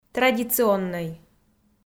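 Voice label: "традиционнӧй", Komi, female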